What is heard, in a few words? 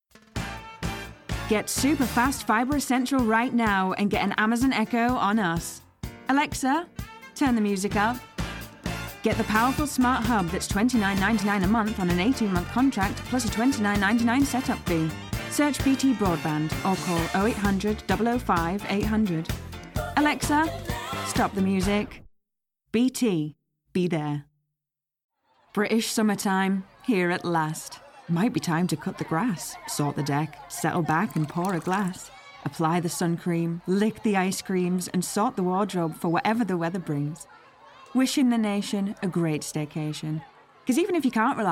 voice reel, regional accents